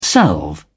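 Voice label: "salve", British English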